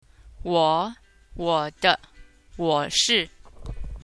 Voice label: I, Chinese